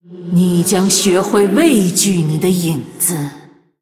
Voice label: Female Blood Elf Threaten; ▶你将学会畏惧你的影子。 ▶You will learn to fear your shadow.; 虚空之影瓦莉拉; 威胁